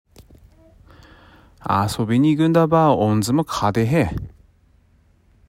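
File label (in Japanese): 津軽方言 例文, 遊びに いぐんだば おんじも かでへ; （遊びに行くなら弟も連れて行きなさい）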